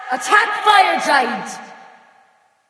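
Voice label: attack female buffed; smite; nike; voicepack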